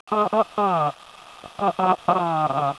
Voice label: a filter; Source Filter